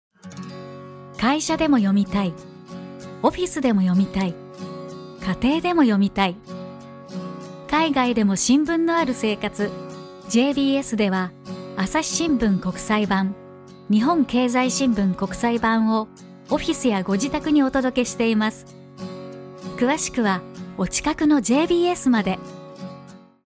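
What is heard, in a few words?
Japanese, Commercial Bright; GoLocalise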